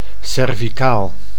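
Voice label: "cervicaal", Dutch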